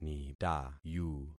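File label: "nitayu", concatenated syllables; Affixing experiment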